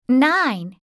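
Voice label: "nine", English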